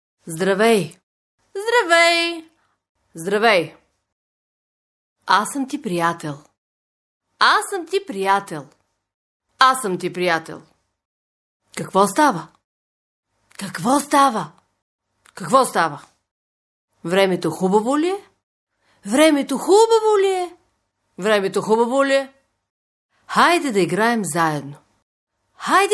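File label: vox Bulgarian woman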